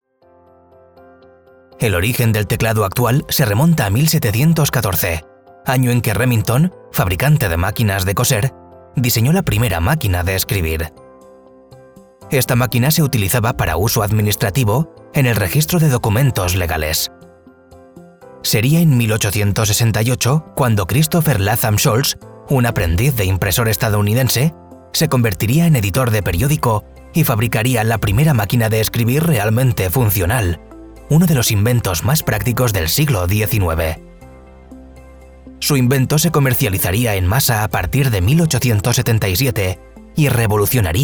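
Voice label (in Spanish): e-learning, Spain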